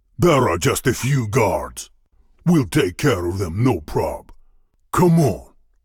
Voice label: Voice Dialog; we are the dwarves gamerip 2016